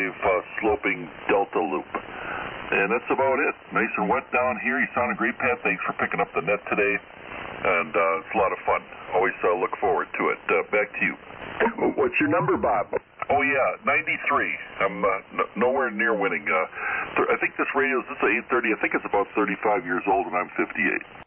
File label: Kenwood TS-830S Shure 444 Mic; Colgate, Wi.